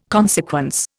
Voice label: "consequence", Indian